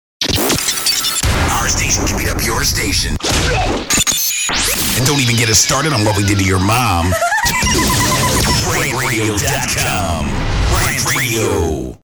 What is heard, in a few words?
Promo; Our station and beat up your station; RantRadio; RantMedia; Station Promos-Listener Submitted